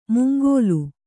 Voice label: "ಮುಂಗೋಲು", kannada